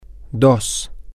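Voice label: two, spanish, female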